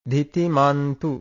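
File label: "dhitimantu", Pali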